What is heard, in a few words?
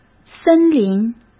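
"森林", Mandarin Chinese; hsk; chinese-flashcards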